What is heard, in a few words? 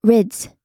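"rids", American English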